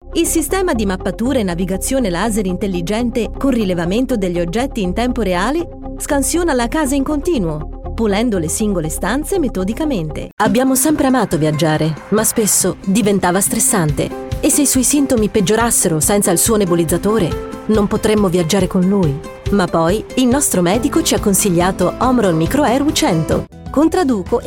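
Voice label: Italian narration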